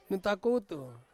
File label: "nutakutu", Ansus; island